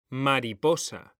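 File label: "mariposa", Spanish, male; mekanta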